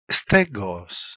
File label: "stegos" Ancient Greek